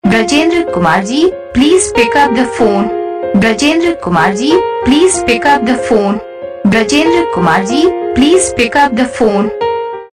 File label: pick up the phone saying, Ringtones